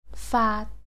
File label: "faat3", Cantonese